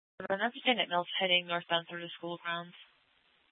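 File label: police department dispatch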